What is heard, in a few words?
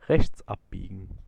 Turn Right, German, male